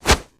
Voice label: angry; bobby